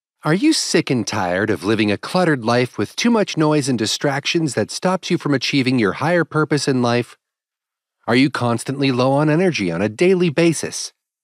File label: voiceover